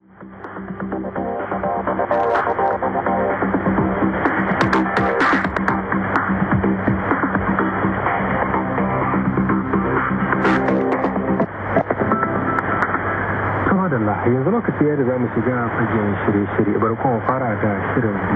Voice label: 15200 KHz hausa; Deutsche Welle; NRD 535 DG; Fribourg